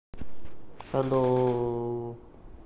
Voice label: voice message